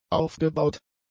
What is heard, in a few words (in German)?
"aufgebaut", German; adjectives